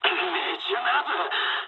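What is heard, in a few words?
bomb failure mood med, speech japanese; War Thunder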